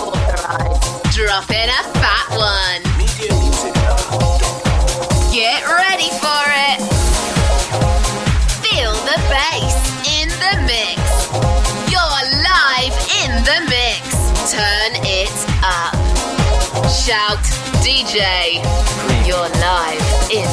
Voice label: DJ Drops, DJ Imaging; Voice-over demos

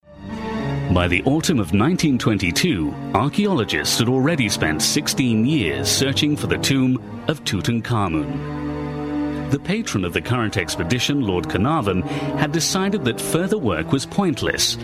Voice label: TV, Film and Documentary; Voice-over demos

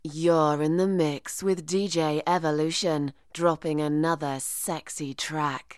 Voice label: DJ drop dry; Voice-over demos

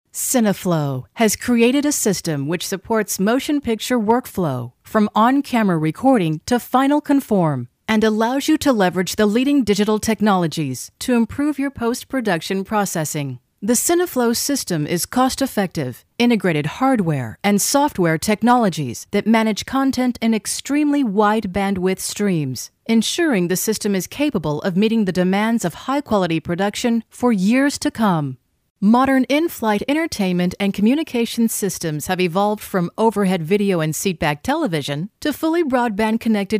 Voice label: Corporate, American Corporate

